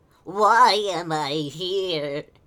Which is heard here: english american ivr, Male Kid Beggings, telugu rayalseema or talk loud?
Male Kid Beggings